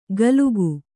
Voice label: "ಗಲುಕು", Kannada

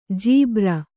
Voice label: "ಜುಬ್ಬರ", kannada

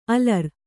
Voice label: "ಅಲರ್", Kannada

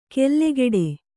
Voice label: "ಕೆಲ್ಲೆಗೆಡೆ", Kannada

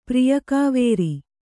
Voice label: "ಪ್ರಿಯಕಾವೇರಿ", Kannada

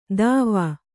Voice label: "ದಾವಾ", kannada

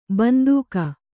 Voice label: "ಬಂದೂಕು", kannada

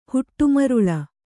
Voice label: "ಹೊಡೆಮರಳ್", Kannada

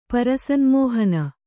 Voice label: "ಪರಸನ್ಮೋಹನ", Kannada

